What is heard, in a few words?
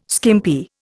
"skimpy", Indian